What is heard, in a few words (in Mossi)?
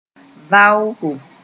"vaoko", moore